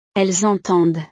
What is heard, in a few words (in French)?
"elles entendent", frances